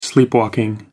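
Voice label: "sleepwalking", British English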